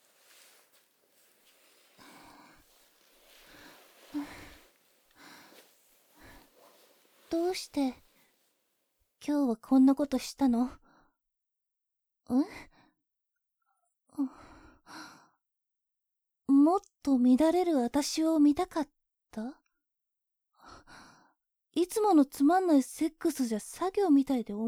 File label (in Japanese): ピロートーク SEあり, ASMR